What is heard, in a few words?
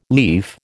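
"lief", American English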